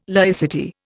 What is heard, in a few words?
"laicity", Indian